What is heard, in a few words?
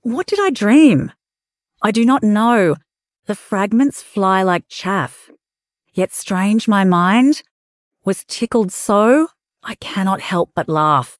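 What Did I Dream, female, Australian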